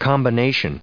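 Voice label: "combination", US English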